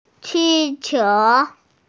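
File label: "qi4qiu2", Chinese, F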